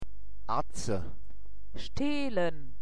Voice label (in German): atze, mundart; woerterbuch